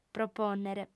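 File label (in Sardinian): "propònnere", female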